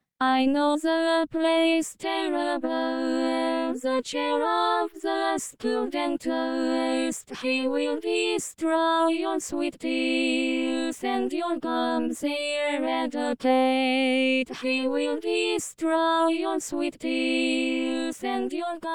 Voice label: Multivoice; School Dentist